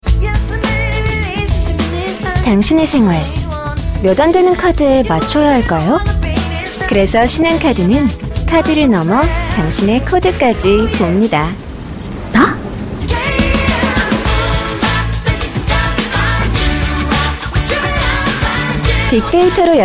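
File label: Korean female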